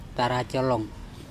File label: slope of mountain taracOlOng, Andamanese